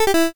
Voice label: pico angry